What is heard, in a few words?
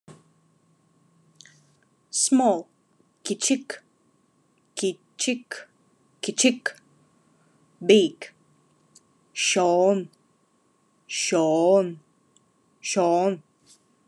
Khakas Small big